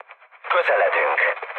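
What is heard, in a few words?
Hungarian near waypoint mood med, speech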